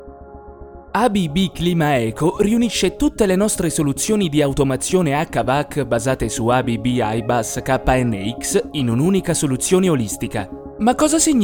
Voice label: SPOT

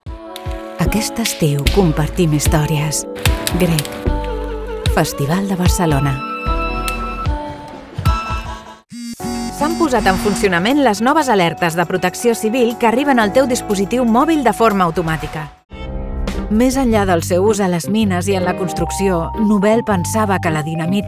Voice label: Catalan, Demo reel

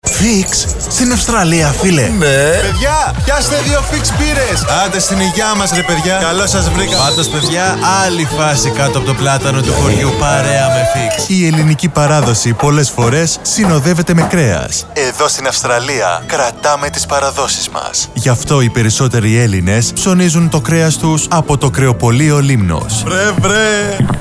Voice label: Commercials, Greek

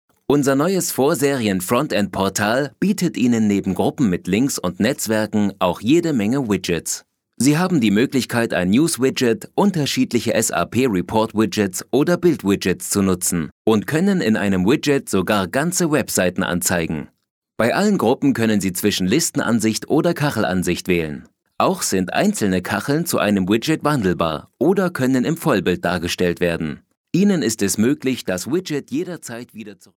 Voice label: Elearning; SAP

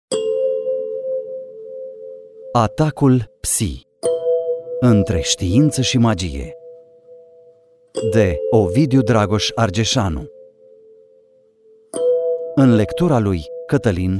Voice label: Audiobook

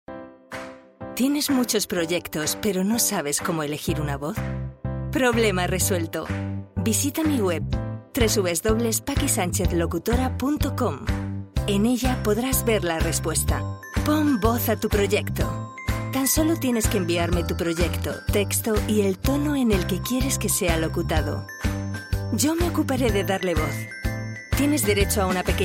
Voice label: promo

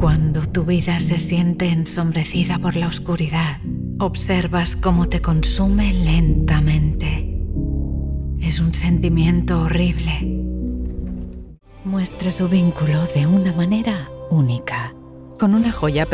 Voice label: Calm Voice

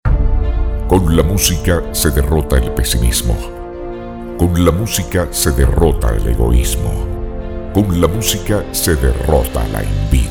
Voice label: PROMO

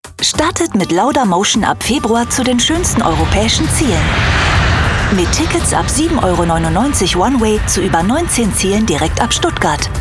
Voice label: spot airline

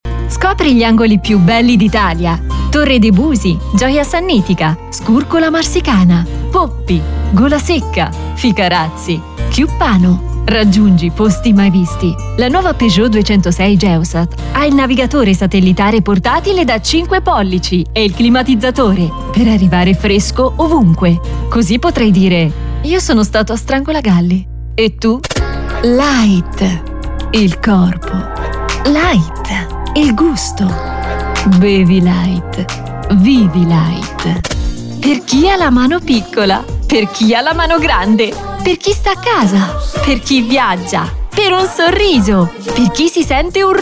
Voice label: Commercials

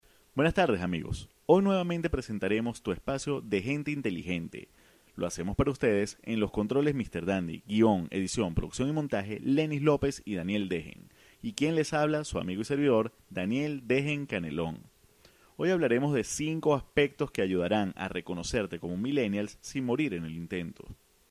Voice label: Corporate

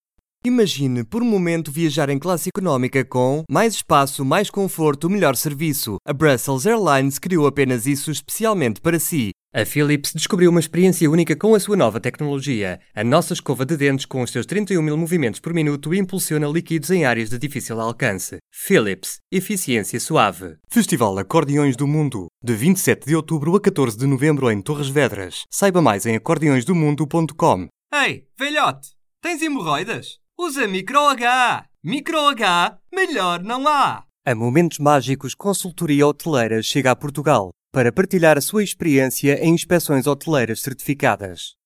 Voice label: COMMERCIAL, PT EU

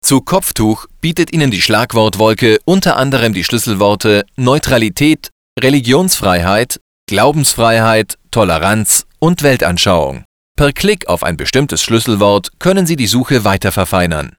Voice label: E-Learning

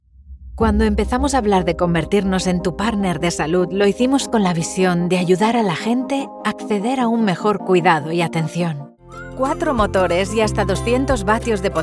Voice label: Commercial, EU Spanish Voice Talent

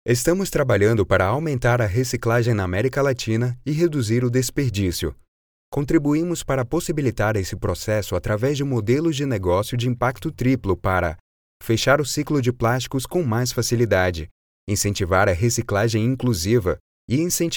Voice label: Internal Corporate

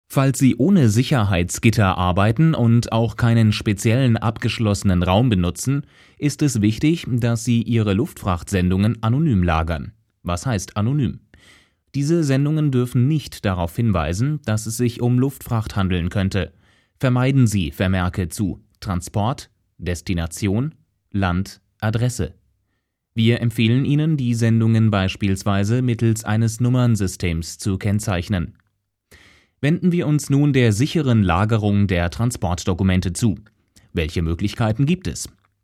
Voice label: E-Learning

